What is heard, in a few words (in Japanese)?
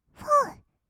"ふぉう", kawaii voice; ASMR; 1200 kawaii voice!!; 音ゲー